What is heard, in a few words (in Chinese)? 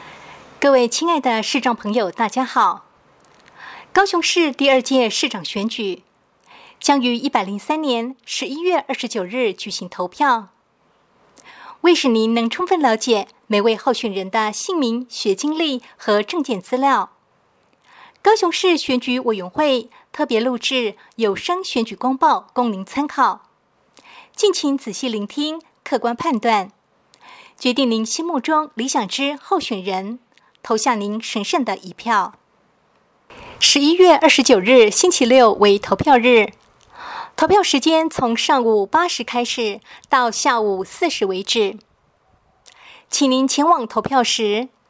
國語 市長 前言; 高雄市; 高雄市市長議員有聲選舉公報